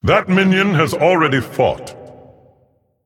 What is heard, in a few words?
Male Titan Forged Emote ERROR MINION ATTACKED